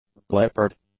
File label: "leopard", English; nouns